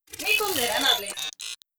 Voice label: robot human female greetings; Stellaris; synthetic dawn